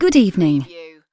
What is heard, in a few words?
UK female good evening; lynne publishing